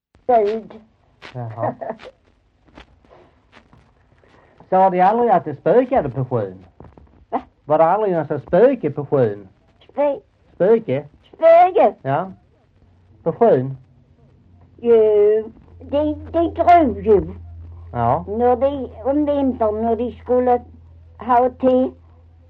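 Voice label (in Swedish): Maglarp Skåne, Svenska sagor dialekter; Folkminnen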